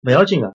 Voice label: no problem, shanghainese